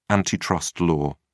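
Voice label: "antitrust law", British English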